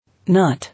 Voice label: "Not", English